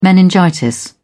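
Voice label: "meningitis", British English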